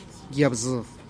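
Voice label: "yab zu", Teotitlán del Valle Zapotec; type of tuna cactus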